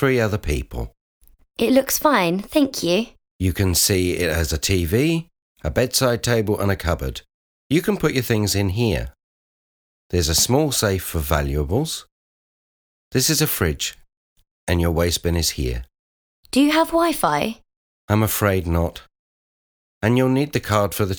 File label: Conversation; Bedside Manner Beginner